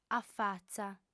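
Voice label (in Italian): "afatza", lingua sarda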